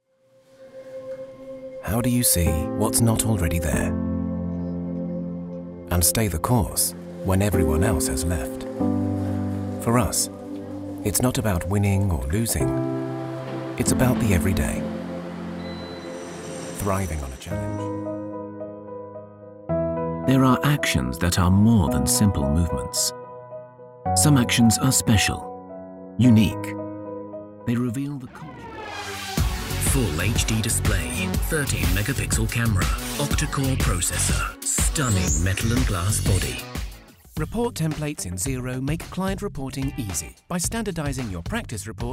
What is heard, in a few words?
corporate promo